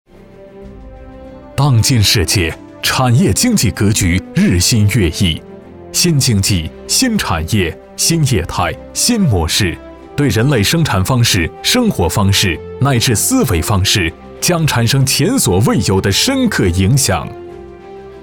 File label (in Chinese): 男 大气专题; 博士科技